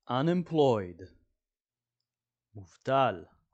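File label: "unemployed" English, "מובטל" Hebrew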